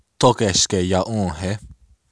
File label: how are you, lakota; Tókheškhe yaúŋ he?; Lakota Sioux